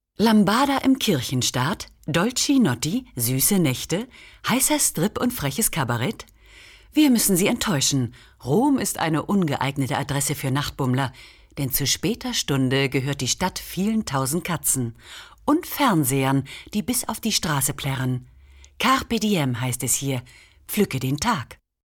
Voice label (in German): kommentar